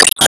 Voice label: Emo Call Name

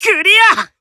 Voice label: Emo Glad

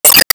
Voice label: Emo Reply

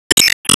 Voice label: Emo Glad

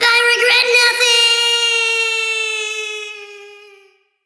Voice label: Troll VO Fall Quip; Skylanders Cloud Patrol; Arcade, iOS, Mobile

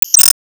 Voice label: Emo Greet

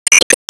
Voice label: Emo Greet

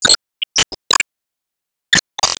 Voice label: Emo Warcry

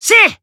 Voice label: Emo Call Name

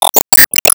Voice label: Emo Warcry

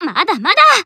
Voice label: Emo Inspire Self

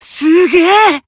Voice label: Emo Praise

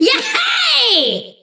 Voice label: Troll VO Success

